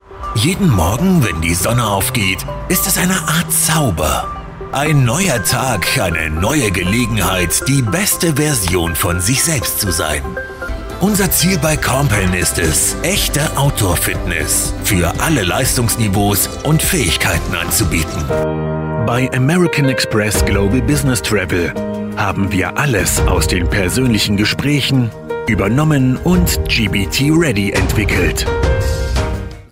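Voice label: German, male, corporate film; Corporate Film Showreel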